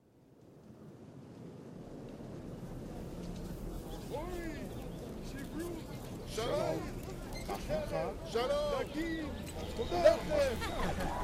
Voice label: Dramatized Hebrew Version